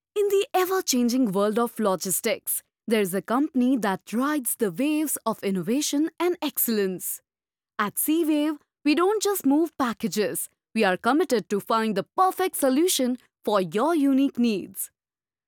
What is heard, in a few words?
english